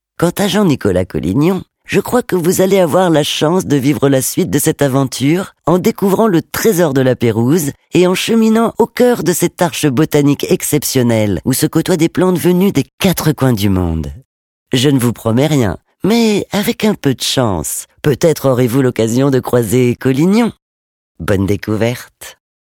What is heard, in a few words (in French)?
Audioguide; Terra Botanica; Laperouse